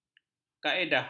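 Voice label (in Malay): "kaedah", Malay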